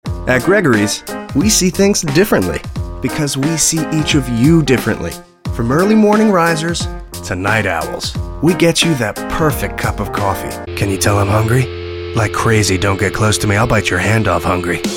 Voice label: Commercial, Voiceover